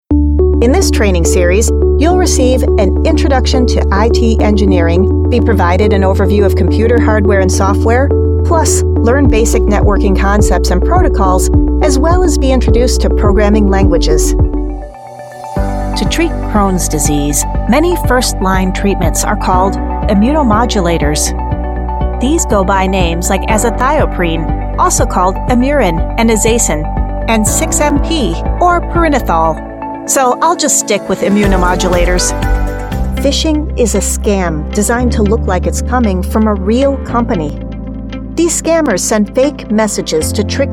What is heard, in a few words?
ELearning